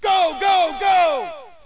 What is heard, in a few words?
us go go go